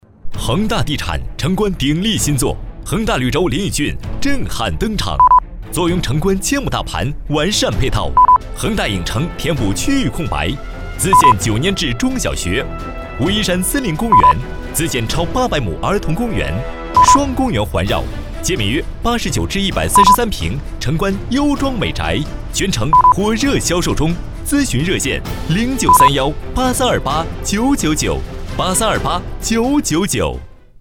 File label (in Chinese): 广告地产; 广告地产恒大地产